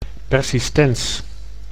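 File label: "persistens", Dutch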